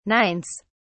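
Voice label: "nines", British English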